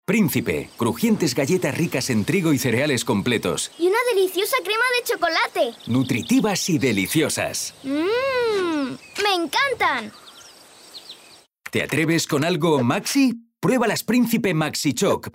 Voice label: male spanish food cookies comercial; ideasonora

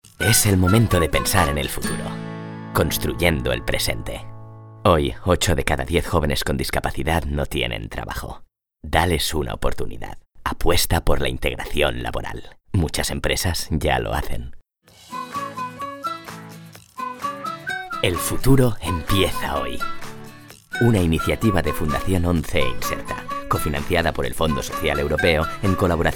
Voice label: male spanish lotery comercial; ideasonora

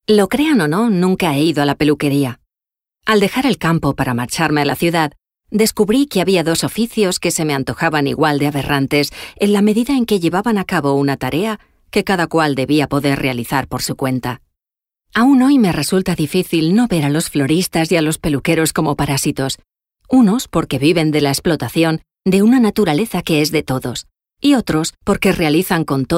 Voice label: female spanish audiobook; ideasonora

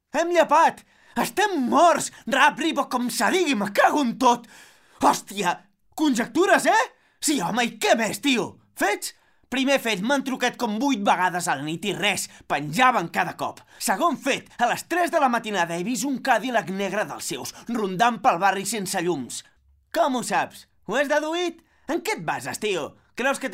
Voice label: male catalan dubbing; ideasonora

